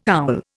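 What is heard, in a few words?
"owl", Indian English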